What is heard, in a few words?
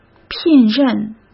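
"聘任", Mandarin Chinese; chinese flashcards; hsk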